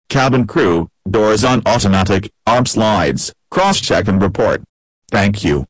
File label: doors, Announce; A320 family